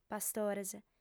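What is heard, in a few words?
"pastores", Sardinian, female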